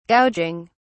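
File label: "gouging", English, British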